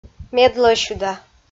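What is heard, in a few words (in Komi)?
"медлӧсьыда", Komi, female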